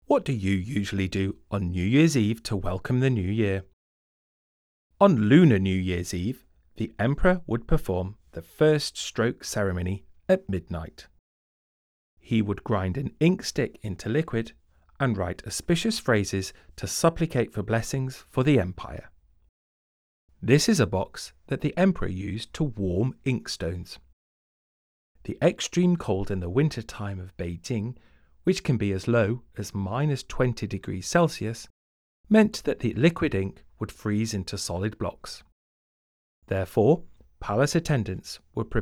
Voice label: Eng VO; audio guide